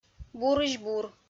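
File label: "бурысь-бур", Komi, female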